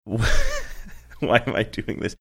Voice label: laughing saying why am i doing this

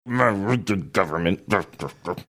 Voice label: muttering

